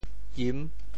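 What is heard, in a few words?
"kim6", Teochew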